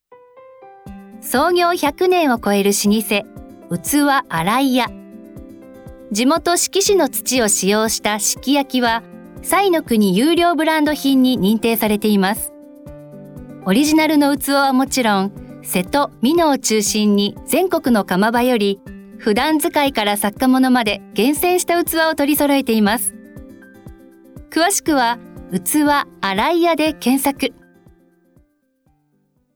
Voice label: narration